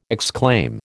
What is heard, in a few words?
"exclaim", American